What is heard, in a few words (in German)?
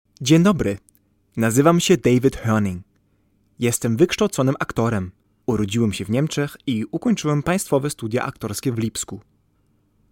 polnisch